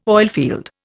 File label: "oilfield", Indian